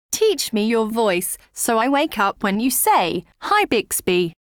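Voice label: wake up ready, British English; Bixby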